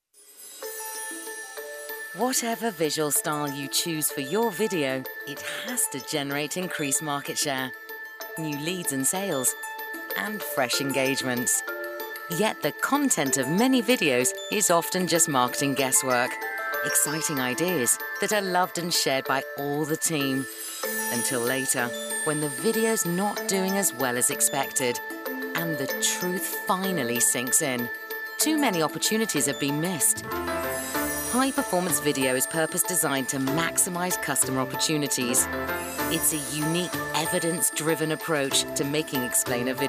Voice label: Animation

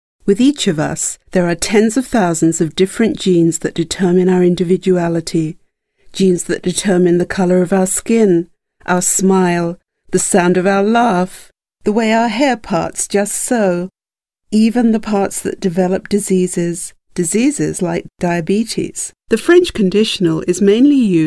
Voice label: E Learning

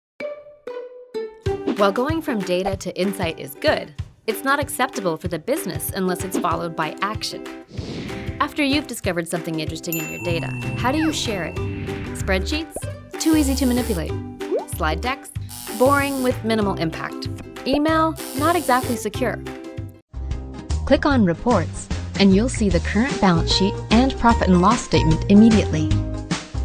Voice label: Explanation videos

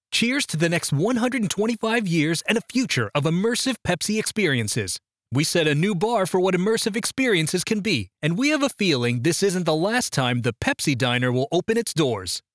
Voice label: Announcer Sample

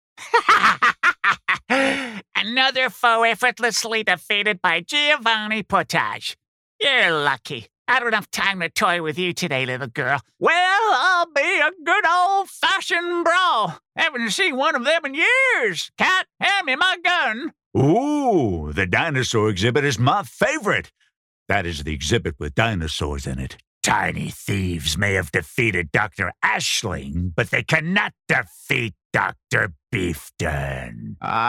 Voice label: Animation Characters

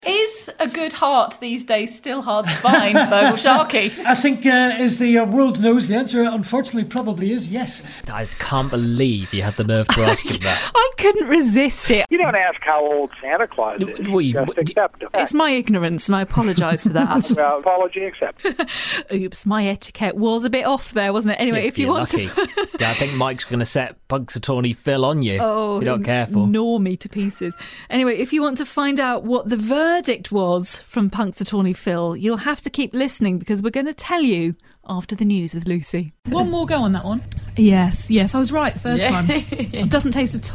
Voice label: radio

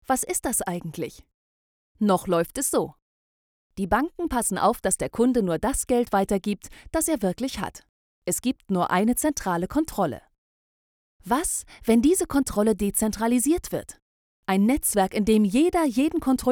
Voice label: explanatory video safety German

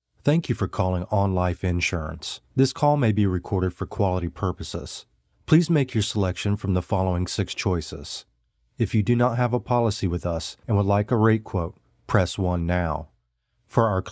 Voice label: Phone

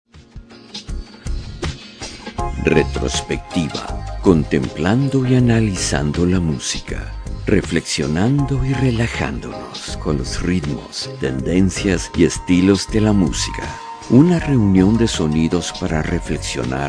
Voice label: Radio

